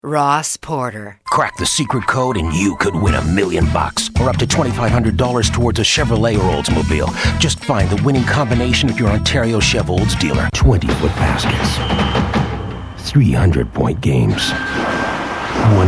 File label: commercial male